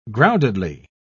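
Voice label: "groundedly", British English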